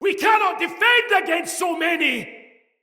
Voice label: Fearful Cry, Soldier Male; Dendrit